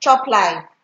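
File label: "choplife", Nigerian Pidgin, female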